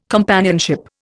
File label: "companionship", Indian